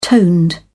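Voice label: "toned", British English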